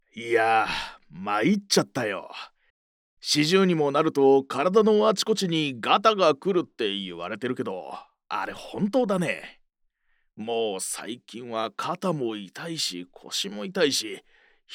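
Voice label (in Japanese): male, 声優, 中年のぼやき; narrator men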